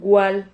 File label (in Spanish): "gual", Silabas